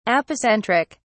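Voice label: "apocentric", British English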